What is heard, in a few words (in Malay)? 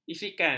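"isikan", Malay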